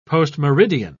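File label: "postmeridian", British English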